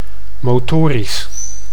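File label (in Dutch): "motorisch", Dutch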